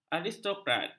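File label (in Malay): "aristokrat", Malay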